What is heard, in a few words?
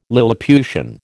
"lilliputian", American